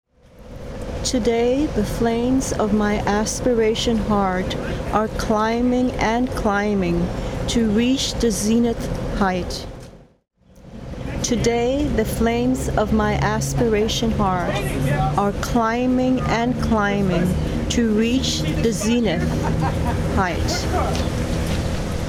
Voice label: poem